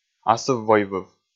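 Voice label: "асыв-войвыв", Komi, male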